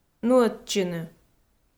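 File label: "нуӧдчыны", Komi, female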